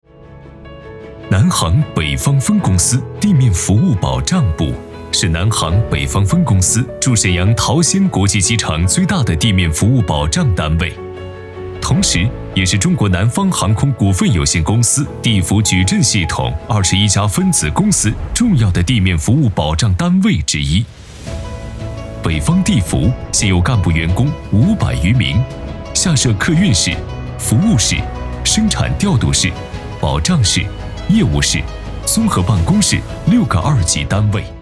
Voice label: 男, 专题汇报类; 中国南方航空北方地服

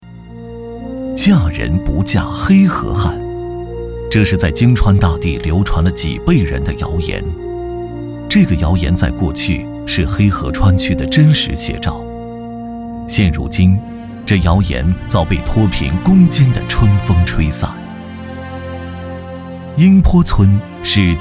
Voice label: 男, 宣传, 讲述

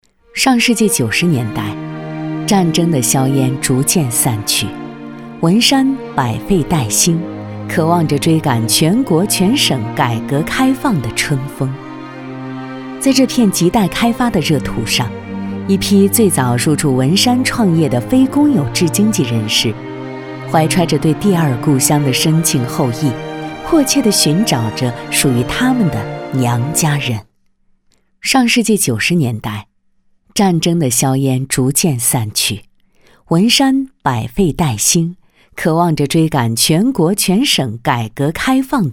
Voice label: 女, 知性大气感慨回忆乡村专题记录

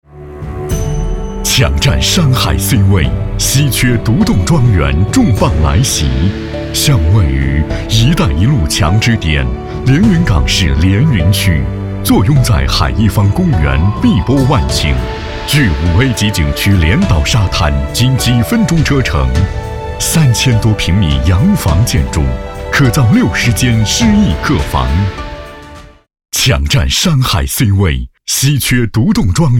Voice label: 男 房产宣传; 抢占山海

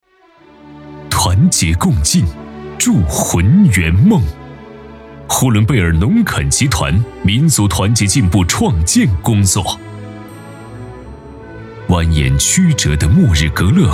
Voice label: 男 专题汇报类 民族团结创建汇报; 呼伦贝尔农垦集团民族团结创建汇报

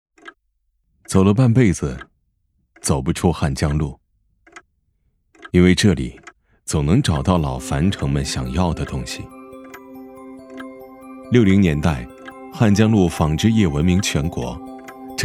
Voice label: 男 宣传 房产 自然亲切讲述

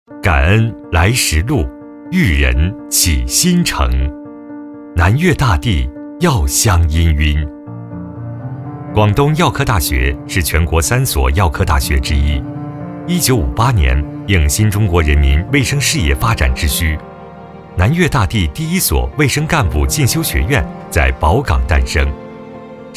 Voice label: 男, 宣传自然讲述; 广东药科大学

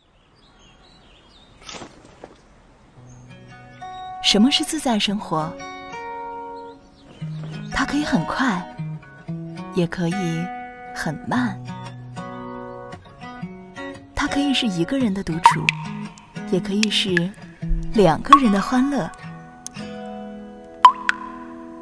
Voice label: 女, 短视频; 自在生活

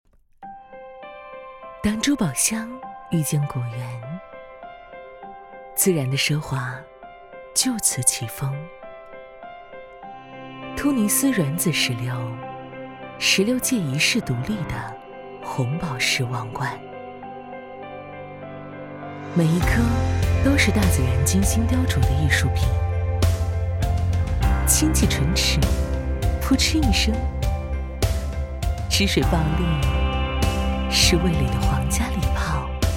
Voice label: 女 广告 质感; 石榴王冠

